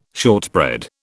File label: "shortbread", British